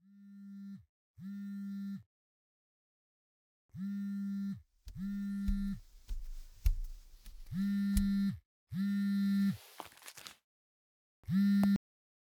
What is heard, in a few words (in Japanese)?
ボイスメール; 1日デートの当選お知らせ; 【マゾちんぽ集まれ】アイドルの圧迫オナサポ。カウパーまみれのスンドメ天国、お射精ガマンできたらバキバキおちんぽをご褒美搾精してあげる☆【罵声に弱い人注意】